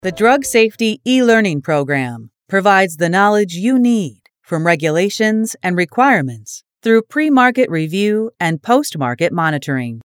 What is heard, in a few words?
E Learning